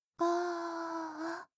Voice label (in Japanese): ゆっくりカウント, ASMR